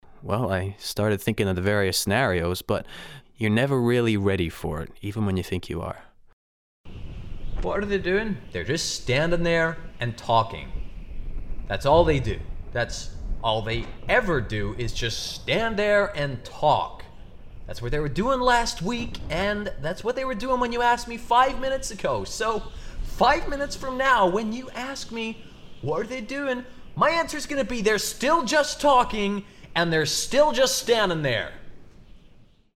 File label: Accent US